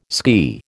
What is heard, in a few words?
"ski", American